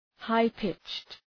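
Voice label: "high-pitched", English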